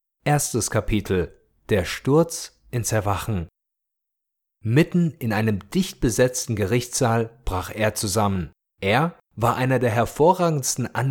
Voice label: german corporate videos